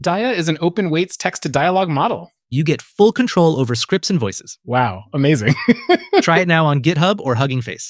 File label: generated dialogue